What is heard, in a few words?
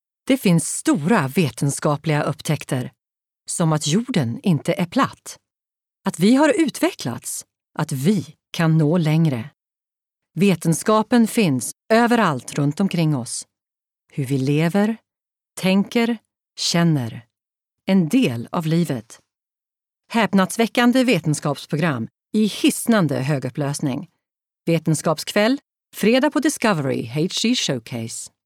Swedish F Continuity